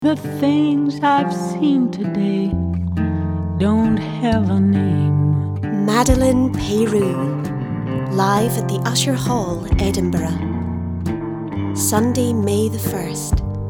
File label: Commercial; Usher Hall